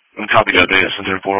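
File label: Emergency Medical Services Dispatch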